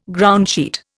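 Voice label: "groundsheet", Indian English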